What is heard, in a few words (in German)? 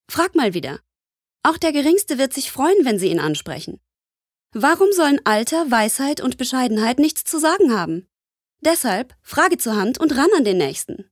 Werbung Cool